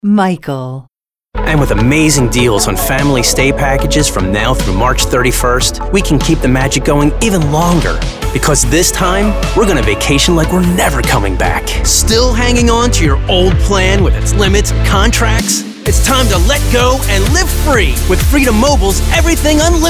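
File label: Commercial, voice-over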